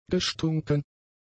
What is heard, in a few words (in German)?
"gestunken", german; adjectives